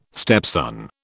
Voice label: "stepson", British